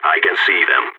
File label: radio enemy spotted, balkan; vo